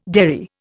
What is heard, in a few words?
"derry", Indian